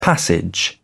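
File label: "passage", British English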